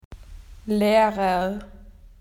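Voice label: "teacher", Australian English; PictureDictionary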